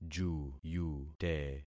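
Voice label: "juyute", concatenated syllables; Affixing experiment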